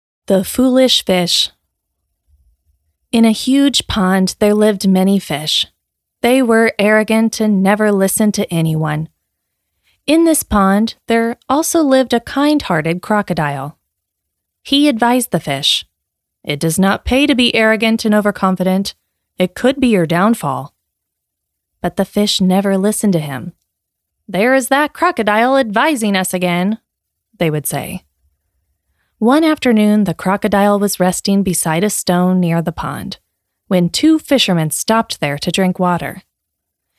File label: Female American Voice